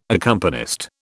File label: "accompanist", British